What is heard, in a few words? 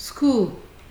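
"school", Nigerian Pidgin, female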